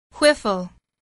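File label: "whiffle", English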